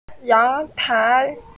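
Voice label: "yang2 tai2", Mandarin Chinese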